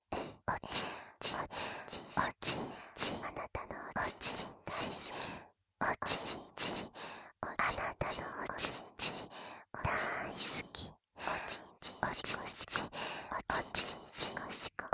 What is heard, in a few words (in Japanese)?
淫語ループトラック 両方